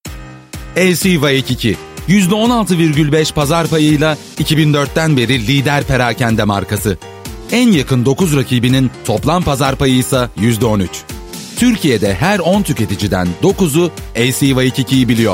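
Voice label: Turkish, male, narrative; voice-over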